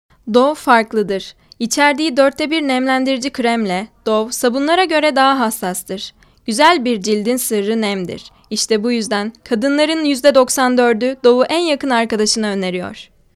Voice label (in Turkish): REKLAM